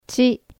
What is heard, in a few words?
"chi", Japanese